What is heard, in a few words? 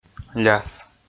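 "ляс", Komi, male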